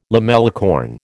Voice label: "lamellicorn", American